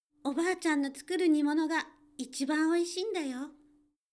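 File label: female; 女性 「おばあちゃんの作る煮物が一番美味しいんだよ！」; パワフル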